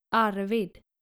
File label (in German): "arvid", skandinavische jungennamen, Aussprache